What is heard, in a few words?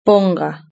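"ponga", Spanish; verb-conjugator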